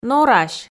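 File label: "норась", Komi, female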